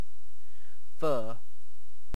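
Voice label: "fir", British English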